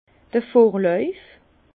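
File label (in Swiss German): "vorlauf", Alsace Bas Rhin; vin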